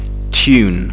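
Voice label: "tune", English; fonetica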